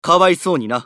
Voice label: 男; エグゼキューションシューター; マスケッティア

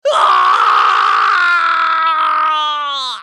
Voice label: ソルジャー男 死亡; リボルドウェソルジャー

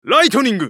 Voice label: ウォーロック男; ライトニング

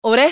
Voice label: ファイター男 選択

